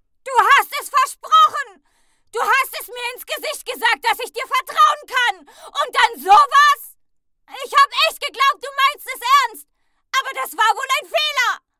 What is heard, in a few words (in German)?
Grundemotion Wut